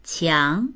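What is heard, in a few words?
"qiang2", Chinese; 墙; 壁、へい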